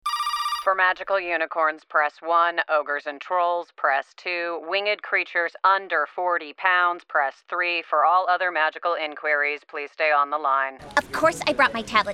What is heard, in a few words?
Animation